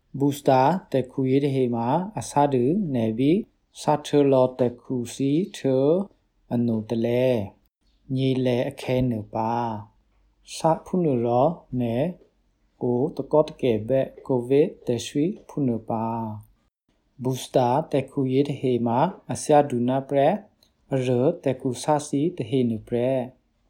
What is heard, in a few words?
Boosters PSA KARENNI